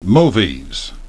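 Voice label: "movies", English; ˡmuː.viz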